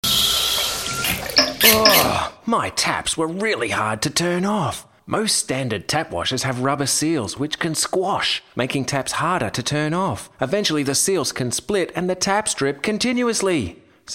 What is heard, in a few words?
commercial